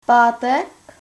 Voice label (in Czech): friday, czech